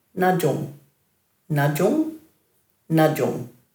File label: "nadjung", Dhurga